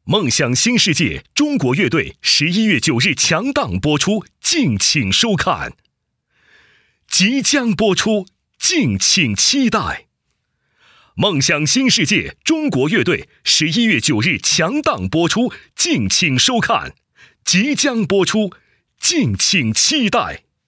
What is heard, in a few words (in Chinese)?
男 片花 节目片花; 《中国乐队》节目片花